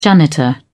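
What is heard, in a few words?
"janitor", British English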